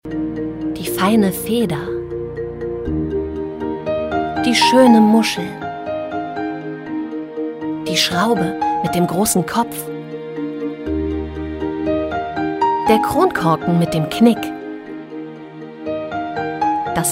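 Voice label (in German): Schauspiel Freundlich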